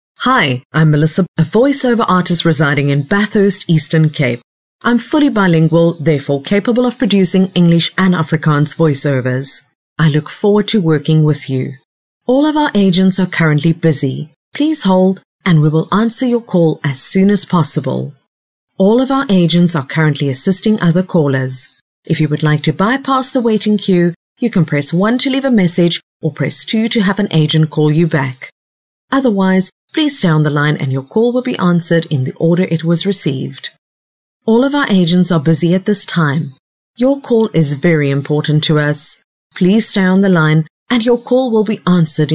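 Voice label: South African, female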